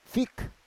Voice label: "fik", Roon

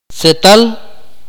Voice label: "what", Akateko

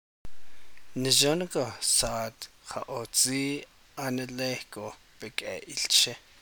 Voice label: navajo; Write a good sentence

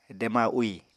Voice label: "dema úì", Apatani

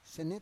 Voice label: "sene", Roon

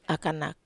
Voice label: "akanak", Wamesa

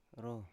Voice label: "ro", Biak

